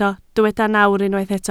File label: "nawr", Welsh